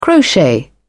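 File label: "crochet", British English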